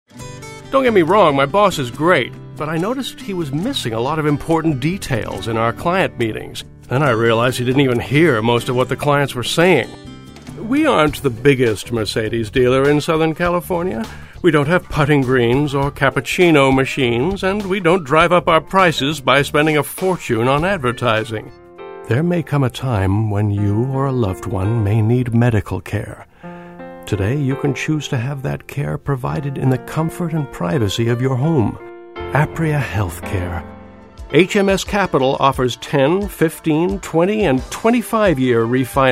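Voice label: commercial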